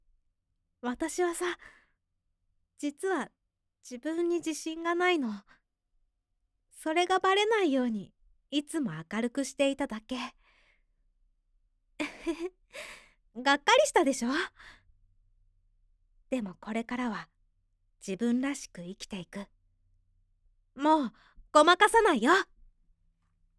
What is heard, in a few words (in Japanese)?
yasasii